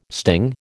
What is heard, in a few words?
"sting", American